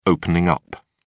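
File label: "opening up", British English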